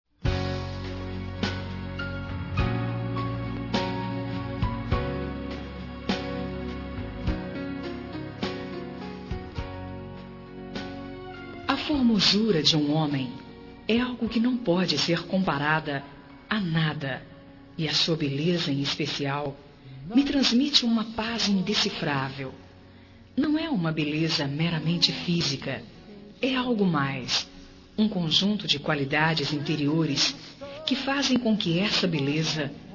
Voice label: Romântica Com Conquista, F

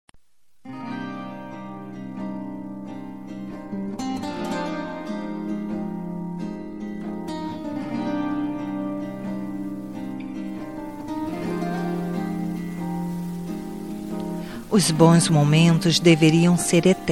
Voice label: Amizade Com Reconciliacão, F

